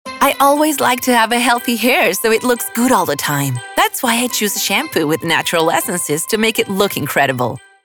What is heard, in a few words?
Young Sweet EN; Joven Dulce